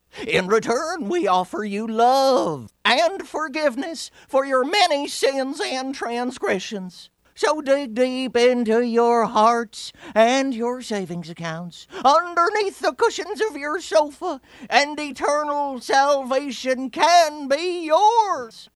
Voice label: preacher voiceover